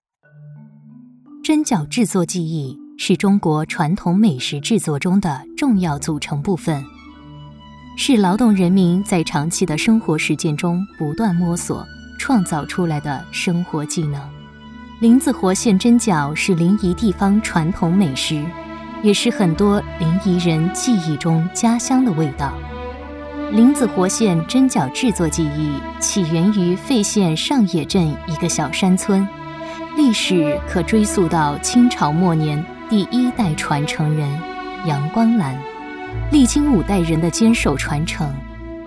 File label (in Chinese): 女 舌尖 美食